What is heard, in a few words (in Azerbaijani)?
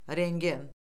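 "rentgen", Azerbaijani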